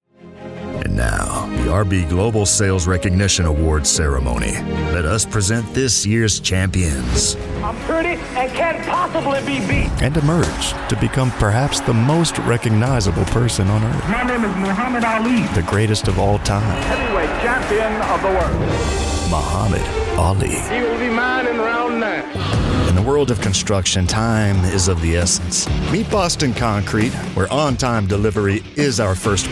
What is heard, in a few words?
english american movie trailers